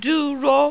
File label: "duro", Yoruba, high tone; HIGH SET